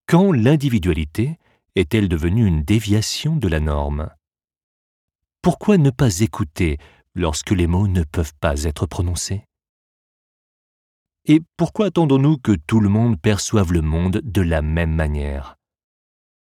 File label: documentary Autisme; Autisme Suisse